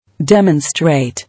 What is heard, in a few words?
"Demonstrate", English